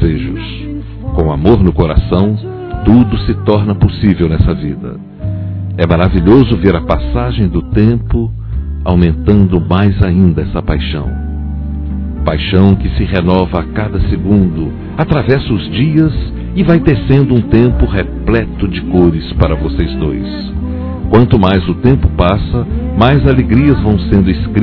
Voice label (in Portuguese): ANIV. CASAMENTO NEUTRO M; Aniversários; ANIVERSARIO romantico; masc